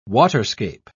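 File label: "waterscape", British English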